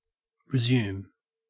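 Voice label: "resume", Australian English; pronunciations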